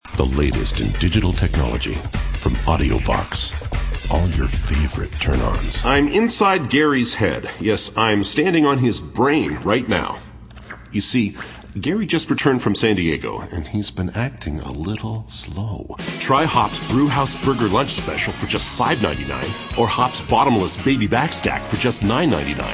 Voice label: male, mid 30s mid 40s, cool